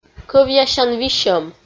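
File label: "кӧвъясян висьӧм", Komi-Zyrian, female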